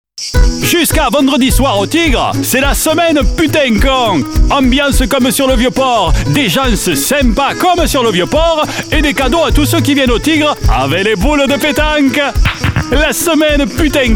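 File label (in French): spot, spots radio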